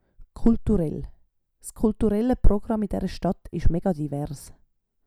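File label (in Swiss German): "kulturell", Swiss German; Wortschatz Kapitel 8; Kapitel 8 Zusatzwortschatz 4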